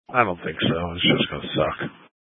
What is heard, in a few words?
Tactical Incidents, scanner